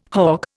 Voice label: "hogg", Indian